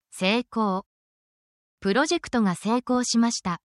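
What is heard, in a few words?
Japanese word, essential Japanese phrases; for your workplace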